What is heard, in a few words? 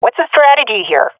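request plan, swat female; vo; Counter Strike Model Pack; CS:GO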